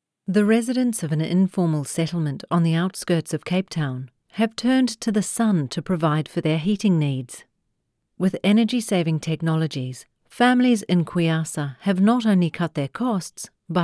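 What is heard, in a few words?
Formal Documentary; Formal Doco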